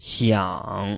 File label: "xiang3", Chinese